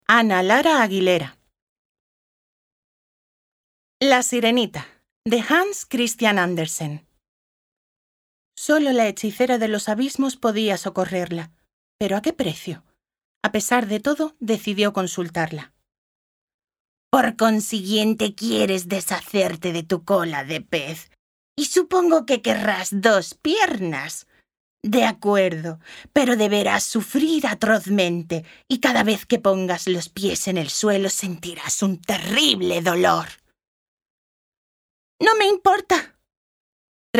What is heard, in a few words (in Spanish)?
Audiolibro